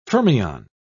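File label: "fermion", English, British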